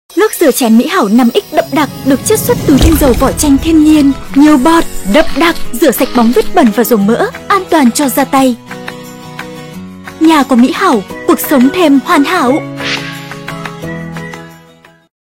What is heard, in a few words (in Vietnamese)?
TVC Giong nu Bac